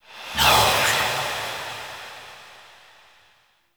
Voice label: Whisper, LOHK; Kuva Lich; Requiem Mod